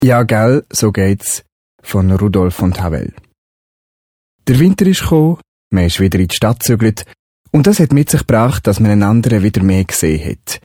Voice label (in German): Schwyzerdütsch